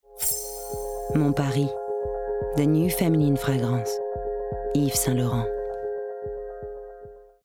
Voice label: English French Accent; YSL

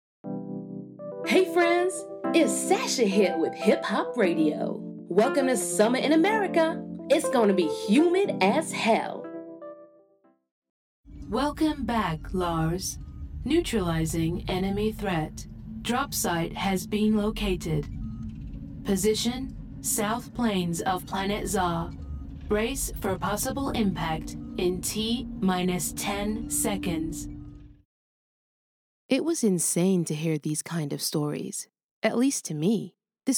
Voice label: American Character

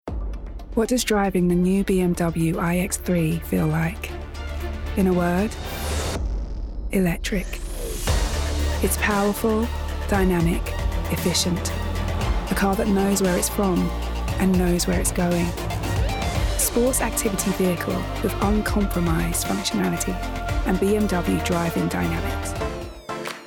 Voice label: Commercials Montage; Actress

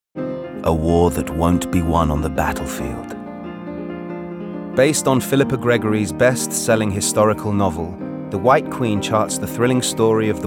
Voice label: Promo; The White Queen

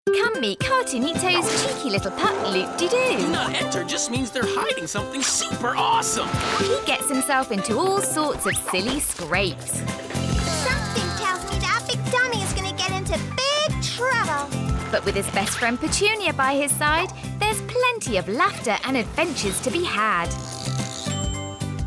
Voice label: Promo; CN; Loopdido

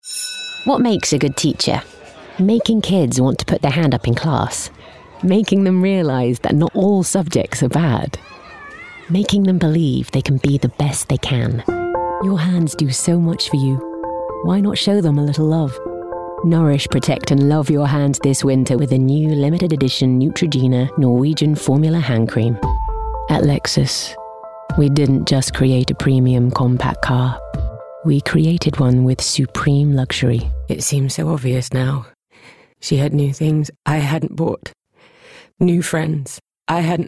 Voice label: Commercial Showreel

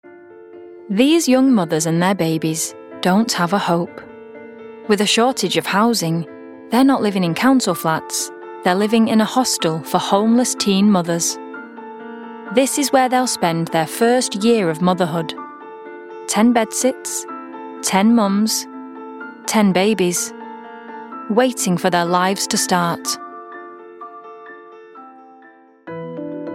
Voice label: doco

